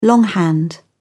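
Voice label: "longhand", British English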